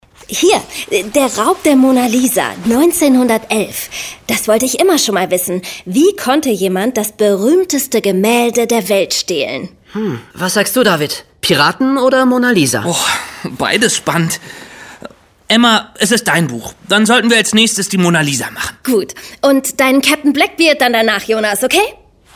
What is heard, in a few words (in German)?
Hoerspiel; Erwischt Zeitreise ins Verbrechen